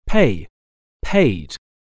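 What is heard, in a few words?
"pay", English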